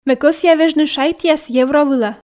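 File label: Komi sentences, female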